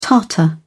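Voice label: "tartar", British English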